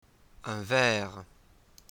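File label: "a glass", French; tourism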